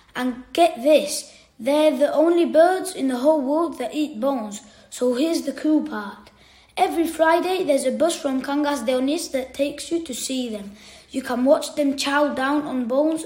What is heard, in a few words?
ENGLISH Bearded Vulture